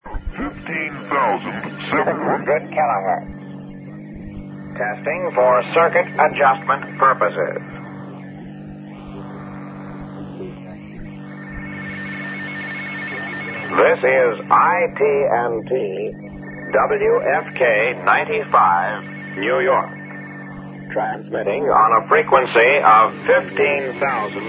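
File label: upper sideband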